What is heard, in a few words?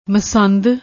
"masand", Punjabi